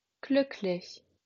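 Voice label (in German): "glücklich", German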